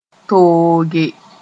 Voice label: "tooge", Mooré

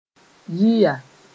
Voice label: "yiya", Mooré

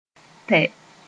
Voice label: "tɛ", Moore

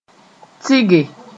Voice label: "tɩge", moore

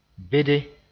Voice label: "bede", Mooré

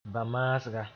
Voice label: "bã-maasga", Mooré; sleeping sickness

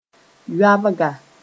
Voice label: "yoabga", Mooré

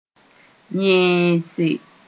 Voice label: "yẽese", Mooré

